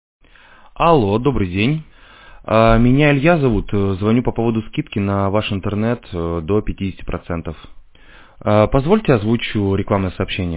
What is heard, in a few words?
ai humanvoice robot; obzvon robotom